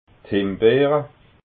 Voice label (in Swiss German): "himbeera", alsace